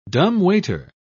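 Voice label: "dumbwaiter", British English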